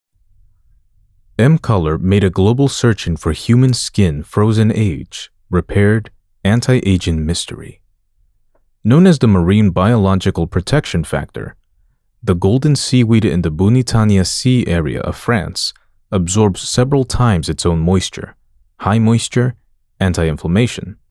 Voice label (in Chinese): 外籍英男 化妆品广告; 梦卡欧兰